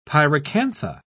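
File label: "pyracantha", British English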